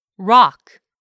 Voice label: "rock", English; 3000 words

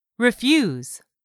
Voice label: "REFUSE", English; 3000 words

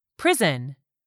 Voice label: "prison", english; 3000 words

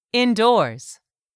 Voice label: "indoors", English; 3000 words

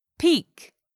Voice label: "peak", English; 3000 words

